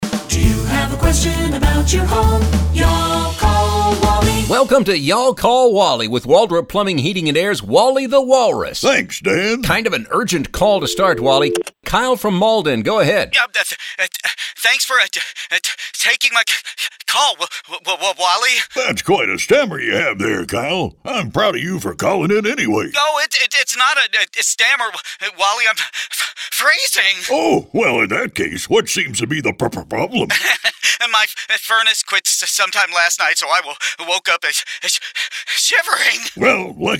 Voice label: radio ads; Fuh Fuh Furnace